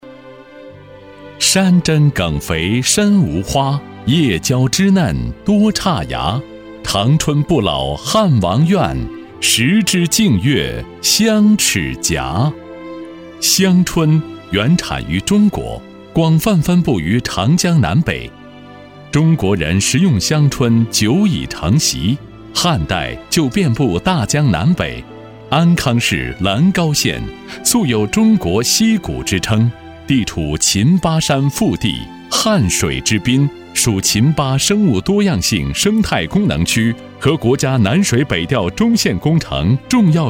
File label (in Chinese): 男,舒缓专题